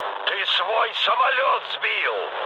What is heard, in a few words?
player killed ally, mood low, speech ru